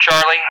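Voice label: "Charlie" English, speech; Alphabet; DCS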